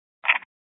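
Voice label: Police Department Dispatch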